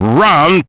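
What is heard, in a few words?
"round", English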